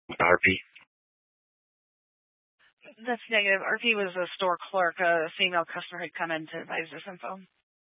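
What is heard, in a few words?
Incident Tac 1, Scanner audio recording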